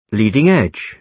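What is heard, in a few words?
"leading-edge", British English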